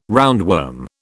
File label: "roundworm", British